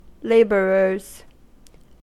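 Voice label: "labourers", American English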